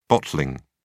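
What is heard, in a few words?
"bottling", British English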